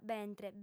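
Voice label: "bentre", female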